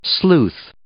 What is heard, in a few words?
"sleuth", English